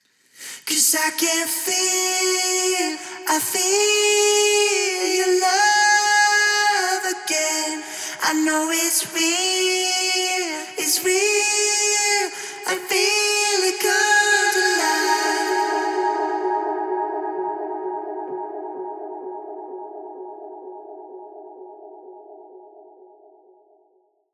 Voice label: Break Main Vocal doubles wet 128 G- minor